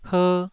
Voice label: "her2", taiwanese

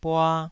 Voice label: "bua2", taiwanese Syllables

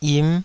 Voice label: "yim5", Taiwanese

